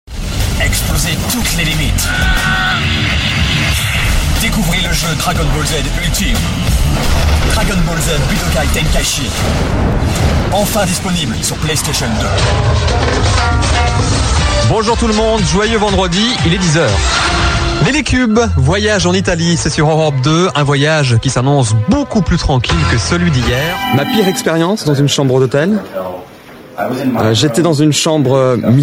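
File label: French Montage M, male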